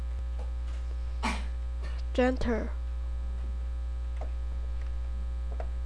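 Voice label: "gentle", spoken word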